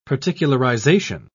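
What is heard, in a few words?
"particularization", British English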